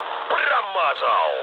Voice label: bomb failure, mood low, speech ru; War Thunder

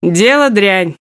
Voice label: Speech Quick Commands Caramba

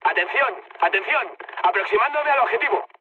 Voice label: near waypoint, mood high, speech; War Thunder

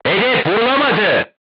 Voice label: voice message armor not pierce, turkish

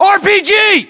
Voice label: threat rpg, US; localized english; battlechatter

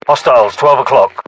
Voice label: UK threat infantry generic, battlechatter

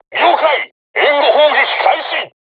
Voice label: voice message art shoot, crew tanks japanese

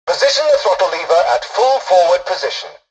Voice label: tutorial dialogue, english; War Thunder

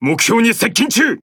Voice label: Jp Speech Pilots Bomber Engage; World Of Warships

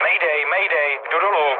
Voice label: aircraft destroyed, speech cze, mood low

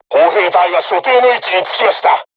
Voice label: voice message art charged, crew tanks japanese

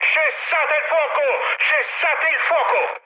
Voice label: player friendly fire mood high, speech it

